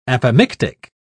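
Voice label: "apomictic", British English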